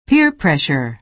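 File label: "peer pressure", American English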